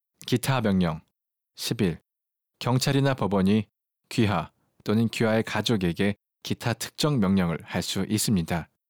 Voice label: korean